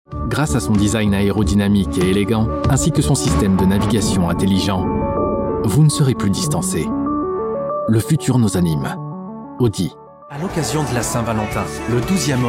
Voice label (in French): voix off